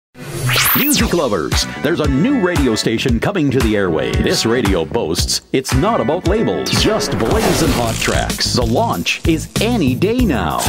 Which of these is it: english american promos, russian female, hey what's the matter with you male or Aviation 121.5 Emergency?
english american promos